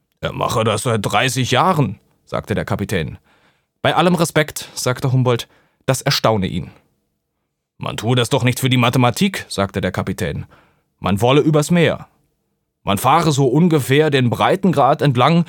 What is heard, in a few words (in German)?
Hoerspiel